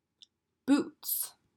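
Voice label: "Boots", English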